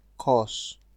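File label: "curse", Nigerian Pidgin, male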